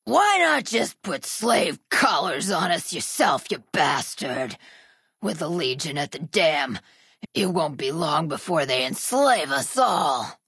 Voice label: female generic ghoul dialogue greeting; Fnv